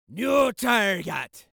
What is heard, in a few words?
Voices Jamaican New Target